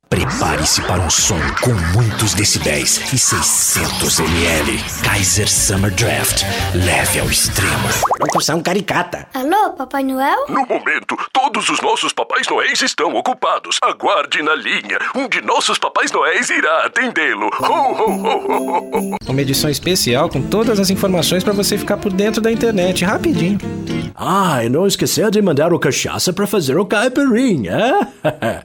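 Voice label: Brazilian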